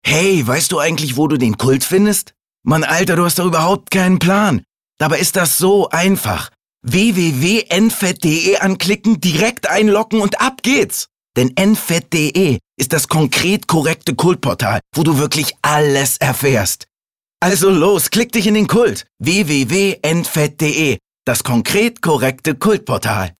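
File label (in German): off, sprecher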